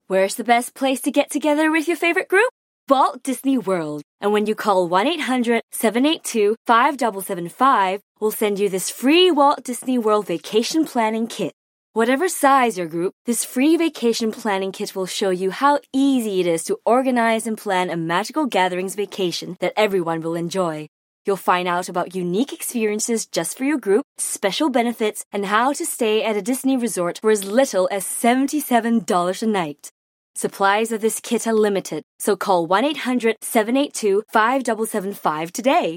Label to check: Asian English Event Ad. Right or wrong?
Right